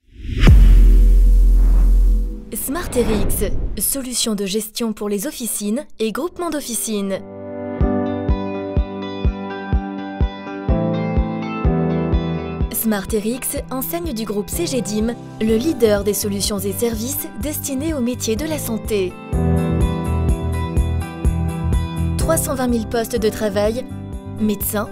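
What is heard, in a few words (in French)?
voix-off film de prestation médical; Smart Rx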